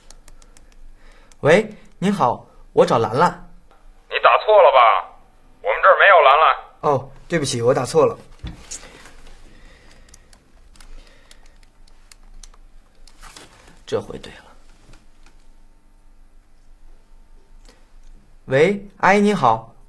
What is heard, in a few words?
phonecall